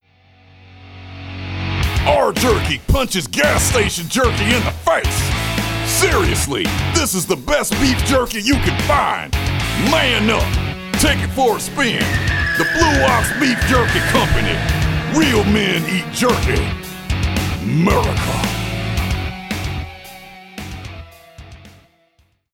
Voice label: jerky commercial